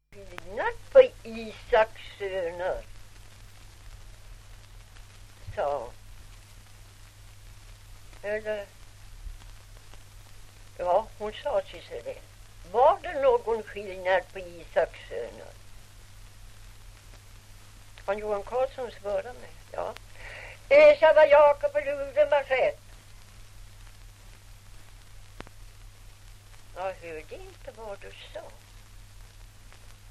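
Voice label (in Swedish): Ovansjö Gästrikland, dialekter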